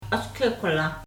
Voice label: "Askekulęą", Dane-ẕaa; Rêveurs